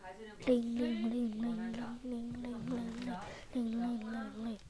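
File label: "ring", spoken word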